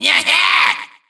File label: Troll VO Success; Skylanders Cloud Patrol; Arcade, iOS, Mobile